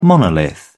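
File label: "monolith", British English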